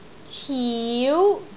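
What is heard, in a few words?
"ciu2", Cantonese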